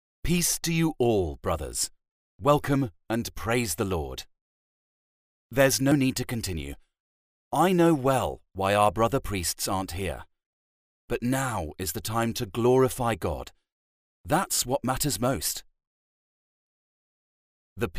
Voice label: locutor ingles english voiceover, locutor británico